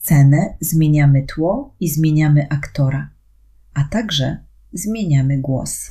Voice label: female